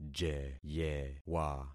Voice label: "jeyewa", concat syllables; Affixing experiment